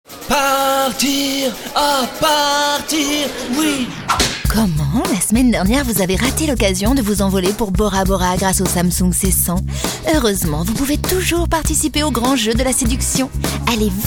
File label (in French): sexy drole, voix off; Samsung; complice